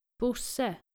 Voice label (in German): "bosse", nordische jungennamen